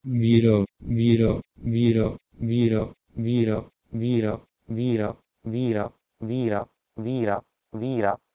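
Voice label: Proto-Indo-European wih1ro to Sanskrit viira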